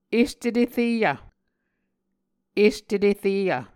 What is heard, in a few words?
"este tereyv", Muscogee